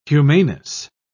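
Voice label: "humaneness", English, uk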